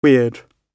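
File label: "weird", British English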